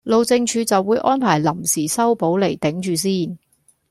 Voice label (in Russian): Гонконгский; commonvoice